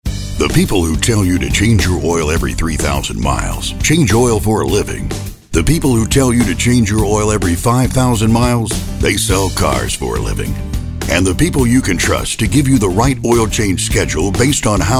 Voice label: raspy gravelly